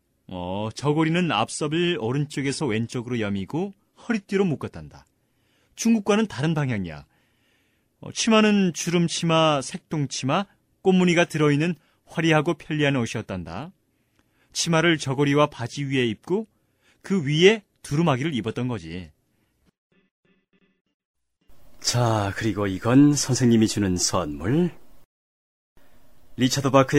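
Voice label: Korean male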